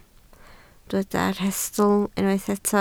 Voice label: "rhestl", Welsh